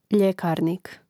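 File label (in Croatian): "ljekarnik" Croatian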